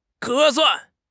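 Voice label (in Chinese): "合算", Chinese; HSK6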